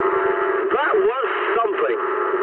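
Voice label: English trick mood med; War Thunder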